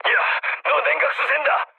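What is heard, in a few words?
Korean damaged water mood low; speech; War Thunder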